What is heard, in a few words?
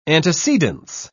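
"antecedence", British English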